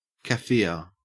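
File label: "kaffir", Australian English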